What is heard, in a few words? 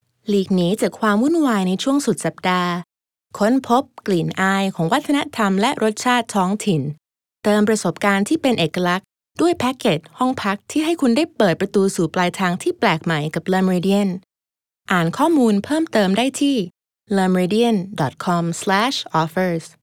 Thai